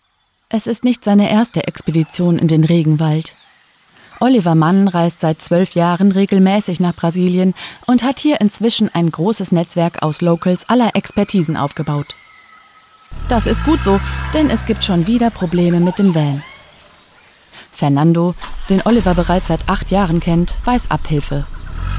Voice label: TV-Doku, Doku, Off, German; Amazonas hautnah

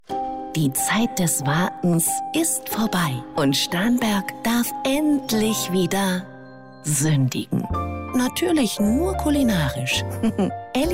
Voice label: Funkspot; LOFT VOICES

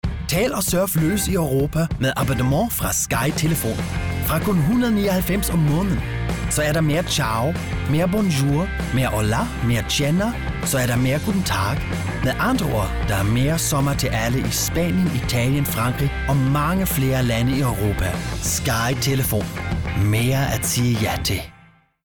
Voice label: telefon; sky

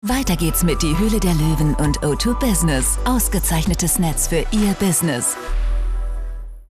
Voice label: Werbung TV; O2 Business

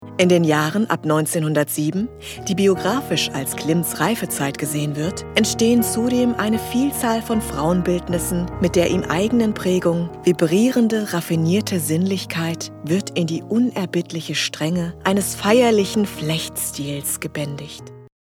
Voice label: Auszug aus Dokumentation Kunst

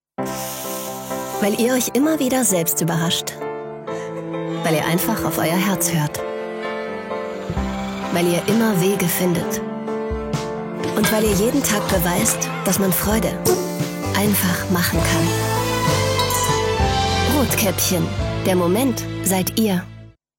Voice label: TV Spot; Rotkäppchen

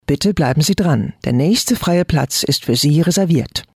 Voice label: Telefonwarteschleife; LOFT VOICES

